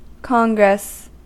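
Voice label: "congress", American English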